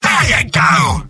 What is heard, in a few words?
sniper positive vocalization; tf2; mvm